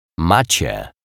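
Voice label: "macie", Polish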